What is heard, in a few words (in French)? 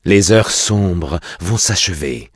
heure sombre acheve, bloodelf male; PhoenixCraft-1.16.5; PhoenixNPCPack; minecraft